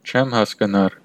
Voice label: no understand, armenian western